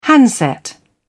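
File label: "handset", British English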